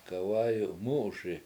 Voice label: horse is running, Washo; gawAyu MU7uSi

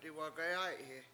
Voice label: I will sweep, Washo; diwagayAy7hi

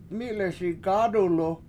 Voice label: "mI7leSi gAAdulu", washo; With Both His Hands

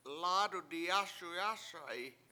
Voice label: I will wash my hands, washo; lAdu7 diyAsu7 ASa7i

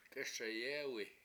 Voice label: "k7ESayEwi", washo; stop breathing

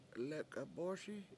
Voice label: lek7ebOOSi spoon it in, Washo

